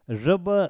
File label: "Zaba", Pashto